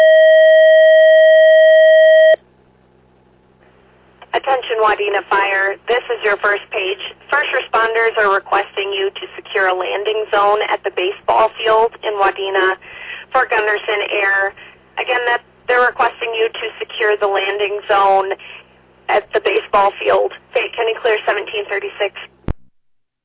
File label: Fire, dispatch